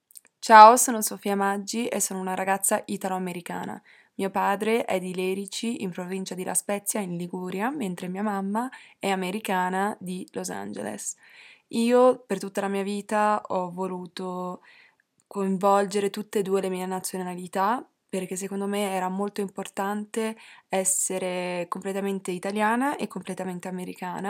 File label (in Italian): Italiano